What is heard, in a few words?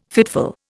"fitful", Indian